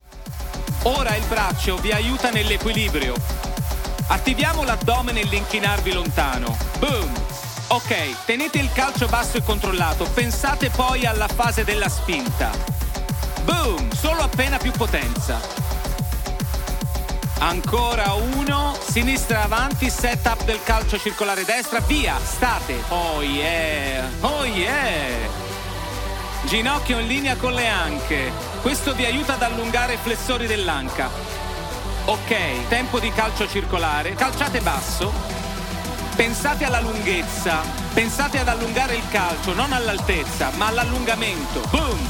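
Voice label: Italian, Commercial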